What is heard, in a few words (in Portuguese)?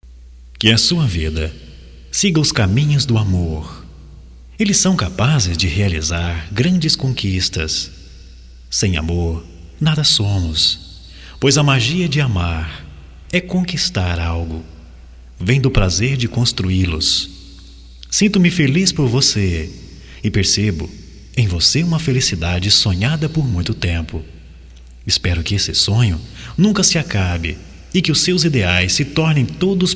VITORIA GERAL M, Só Voz, masc; Telemensagens; Telemensagens 2017